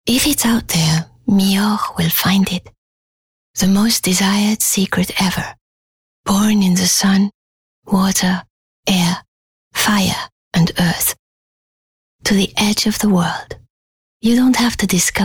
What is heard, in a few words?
English Accent French Intimate; OSM Talent